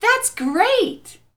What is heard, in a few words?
Woman "That's Great"; laughs-chuckles